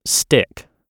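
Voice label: "stich", British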